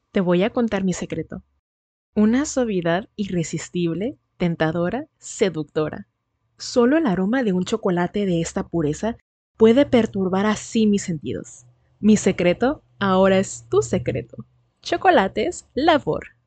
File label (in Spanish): Spot Comercial Chocolates, locución; LAvor